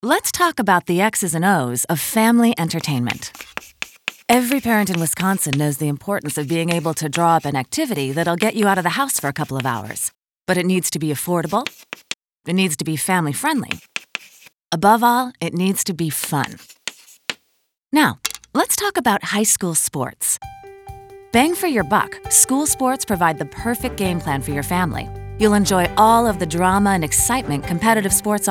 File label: audio spot